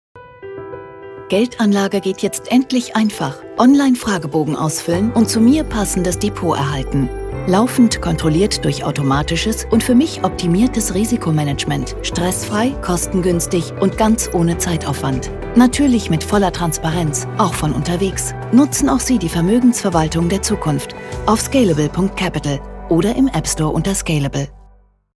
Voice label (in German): imagefilm; scalable